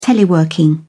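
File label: "teleworking", British English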